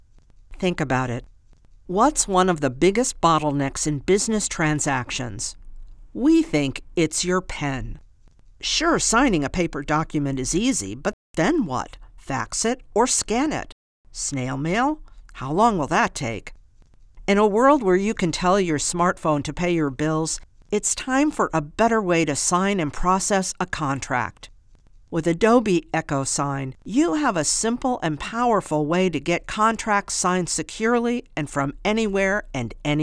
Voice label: Explainer; Adobe Echosign